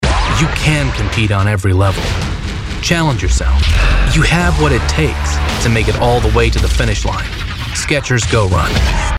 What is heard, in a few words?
Inspiring Challenging